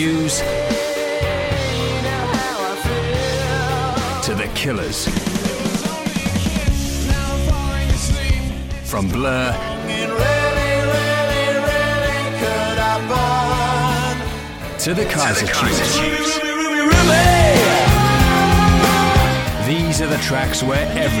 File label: Epic Commercial; HMV